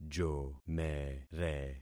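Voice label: "jomere", concatenated syllables; Affixing experiment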